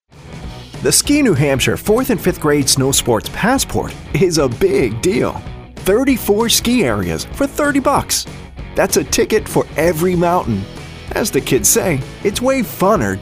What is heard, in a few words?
Voiceovers Promos